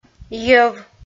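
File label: "йӧв", Komi, female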